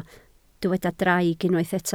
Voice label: "draig", Welsh

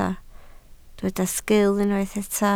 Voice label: "sgiil", Welsh

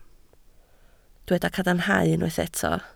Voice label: "cadarnhadau", Welsh

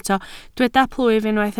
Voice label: "plwyf", Welsh

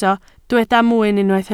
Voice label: "mwyn", Welsh

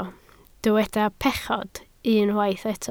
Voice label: "pechod", Welsh

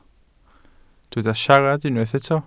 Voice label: "siarad", Welsh

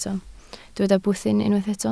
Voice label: "bwthyn", Welsh

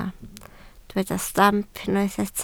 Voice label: "stamp", Welsh

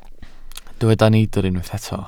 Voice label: "neidr", Welsh

